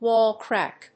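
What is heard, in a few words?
"wall-clock", English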